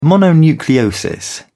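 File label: "mononucleosis", British English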